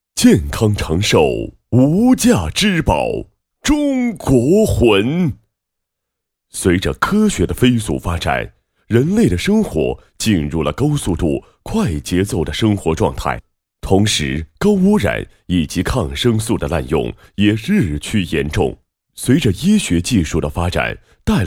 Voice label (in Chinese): 男 广告 产品宣传; 某县黄金人有限责任公司治“未病”产品宣传